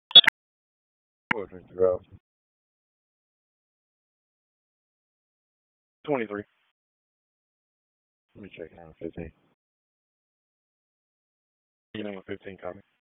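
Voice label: Raleigh Police Downtown District Dispatch, p25; wake p25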